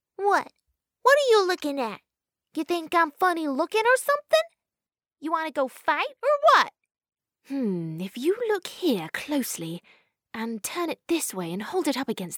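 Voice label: English British, female, voice over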